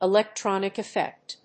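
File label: "electronic effect", English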